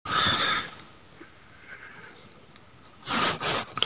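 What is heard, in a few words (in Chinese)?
"bi4 ye4" Chinese, female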